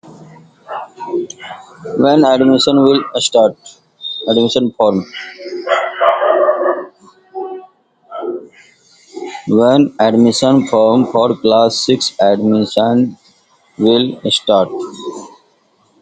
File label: voice message